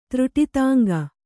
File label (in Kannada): "ತ್ರುಟಿತಾಂಗ", Kannada